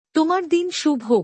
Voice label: "Have a good day", bangla; Basic Greetings and Courtesy